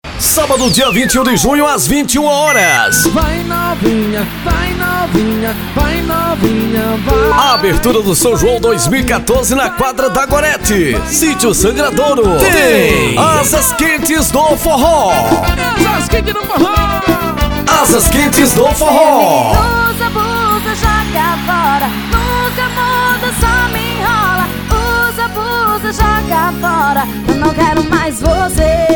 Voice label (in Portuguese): locutor gravacoes